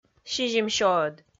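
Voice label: "сизимсёӧд", Komi, female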